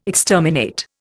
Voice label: "exterminate", Indian